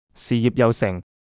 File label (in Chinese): 事业有成, Cantonese; 事業有成